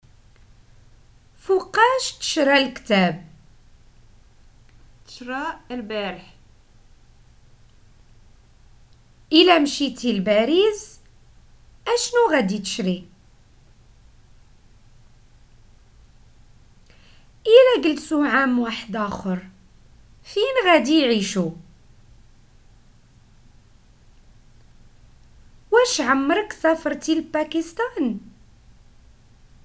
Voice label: prompts, Moroccan Arabic